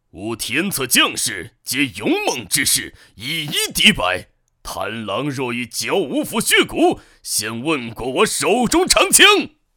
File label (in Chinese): 中年男